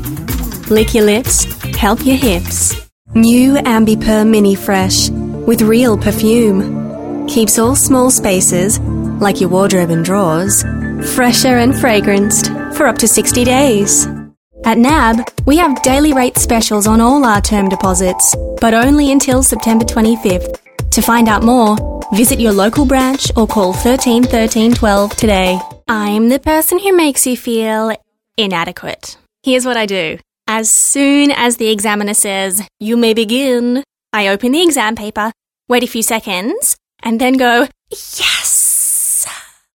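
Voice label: Australian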